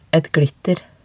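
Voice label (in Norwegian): "et glitter", Norwegian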